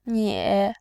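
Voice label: "nie", Mandarin, tone 3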